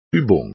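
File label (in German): "Ubung", German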